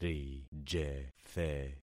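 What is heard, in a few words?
"rijethe", concatenated syllables; Affixing experiment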